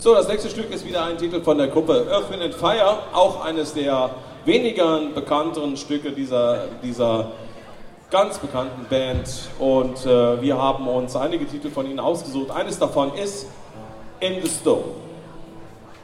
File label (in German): Ansage, Konzert; Boemundhof, Saarburg